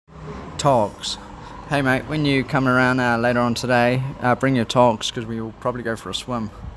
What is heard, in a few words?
"Togs", New Zealand; common New Zealand slang words and phrases